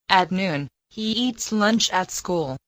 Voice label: Mandarin Conversation